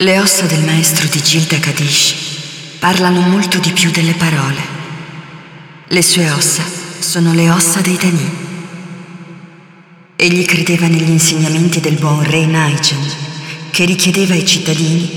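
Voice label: Speech italian; Yeesha; moul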